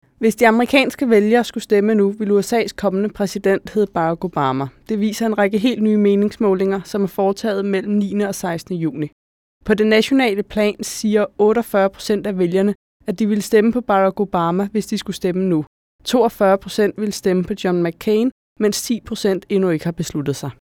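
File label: danish female narration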